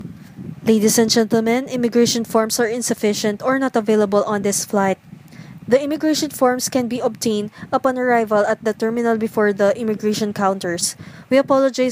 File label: airplane announcements